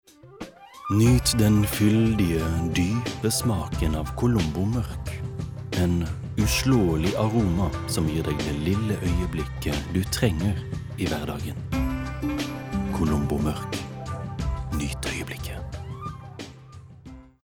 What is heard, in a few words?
no soft sell